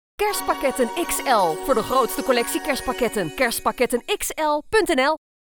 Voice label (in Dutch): reclame spot radio; kerstpakketten xl; sky radio